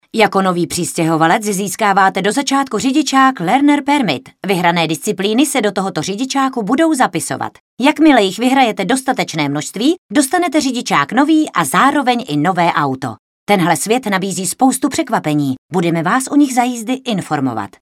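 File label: female Czech commercial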